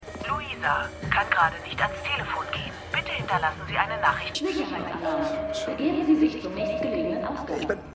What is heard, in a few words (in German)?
Mailbox Stimme Sicherheitsalarm; Slow Horses